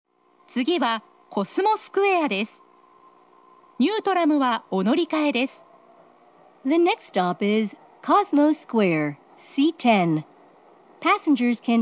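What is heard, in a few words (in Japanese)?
大阪メトロ中央線車内放送, 次はコスモスクエア; 次はコスモスクエア(1番線)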